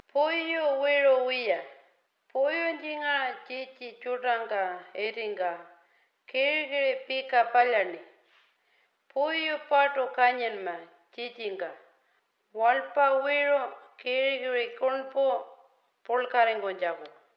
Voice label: pitjantjara smoking